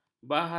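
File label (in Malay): "bahasa", Malay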